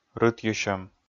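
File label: "рытйысьӧм", Komi, male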